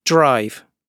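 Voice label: "drive", British English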